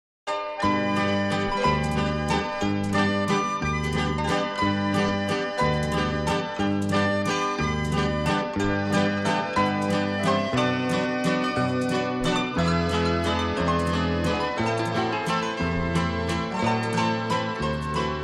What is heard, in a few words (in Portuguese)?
Feliz Natal Religioso, M